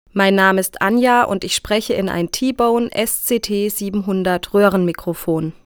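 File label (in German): tbone sct700 sprache female; t.bone SCT 700 Röhrenmikrofon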